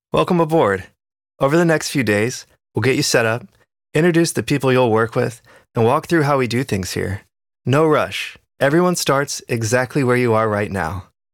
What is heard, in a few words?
elearning onboarding welcome; warm male